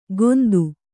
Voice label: "ಗೋಂದು", Kannada

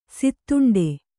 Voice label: "ಸೀದೊಂಡೆ", Kannada

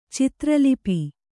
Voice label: "ಚಿತ್ರಲಿಪಿ", kannada

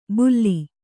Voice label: "ಬುಲ್ಲಿ", Kannada

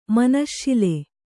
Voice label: "ಮನಶ್ಶಿಲೆ", Kannada; manaśśile

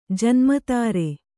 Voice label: "ಜನ್ಮತಾರೆ", Kannada

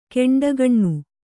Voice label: "ಕೆಂಡಗಣ್ಣ", kannada

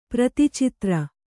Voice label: "ಪ್ರತಿಚಿತ್ರ", Kannada

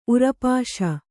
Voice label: "ಉರಪಾಶ", Kannada

